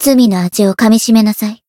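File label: win, Japanese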